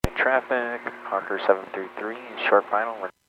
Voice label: Airports 122.7, Radio; KSTE